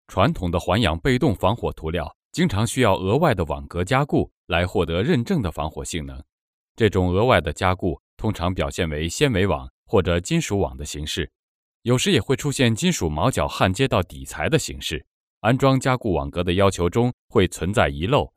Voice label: male Chinese Mandarin, corporate, Deep Male Chinese Mandarin voice